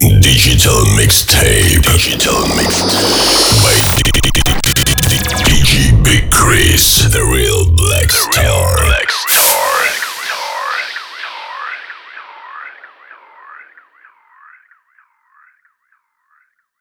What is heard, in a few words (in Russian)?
DJ ДЖИНГЛ